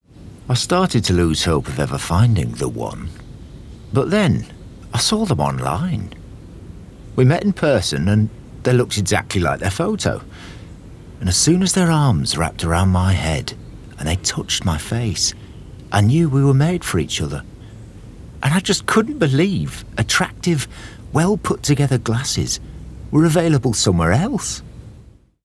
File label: Northern, Conversational, Bright, Humerous, Natural, Northern; Specsavers